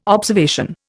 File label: "observation", Indian